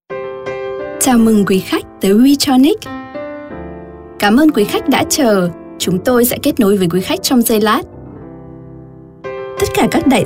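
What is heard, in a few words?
telefonansagen sprecher, Vietnamese; Native Speaker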